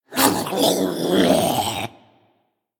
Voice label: Male Murloc Play